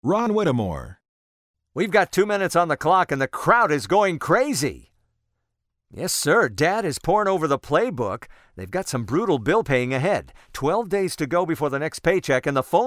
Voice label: sports announcer